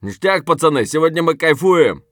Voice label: mutter; Escape from Tarkov; scav5